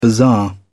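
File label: "bazaar", English, British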